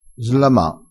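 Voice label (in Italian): "slama", dialettale, crespinese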